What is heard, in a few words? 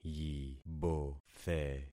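"yibothe", concatenated syllables; Affixing experiment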